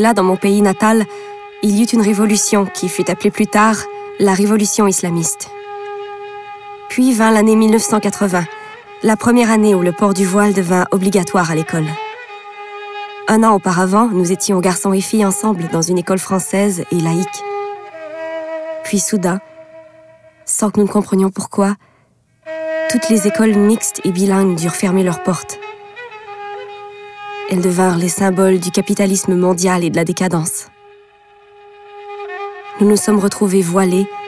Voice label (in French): Narration Iran